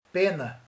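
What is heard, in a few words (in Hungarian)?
"köt", Hungarian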